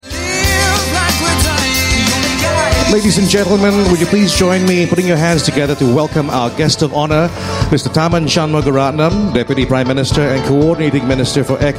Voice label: Asian English Emcee; Showreel